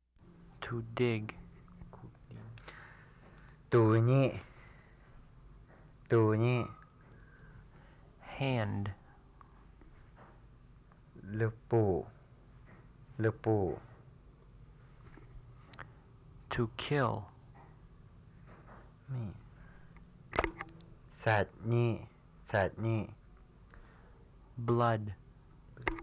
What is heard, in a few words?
Phunoi